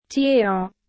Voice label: "चिया", Nepalees; thee-in-andere-talen

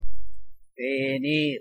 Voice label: "தேநீர்", Tamil; thee-in-andere-talen

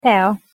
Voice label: "tea", Hongaars; thee-in-andere-talen

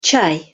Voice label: "чай", Lezgisch; thee-in-andere-talen

